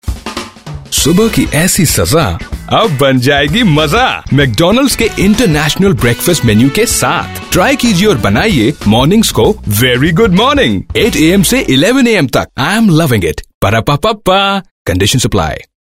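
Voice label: Hindi Male Voice Over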